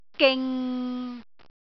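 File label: "ging6", Cantonese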